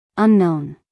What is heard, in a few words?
"unknown", us female; everyone-can-use-english